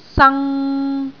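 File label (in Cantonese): "sang3", Cantonese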